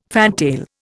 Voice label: "fantail", Indian

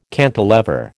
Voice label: "cantilever", American

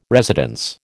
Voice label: "residence", American

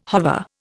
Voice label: "hover", Indian

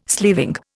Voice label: "sleeving", Indian

